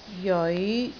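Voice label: "jeoi5", Cantonese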